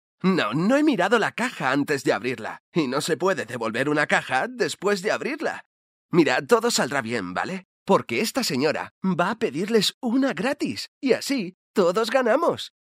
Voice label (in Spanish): locutor spain